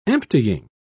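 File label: "emptying", British English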